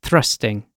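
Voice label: "thrusting", British English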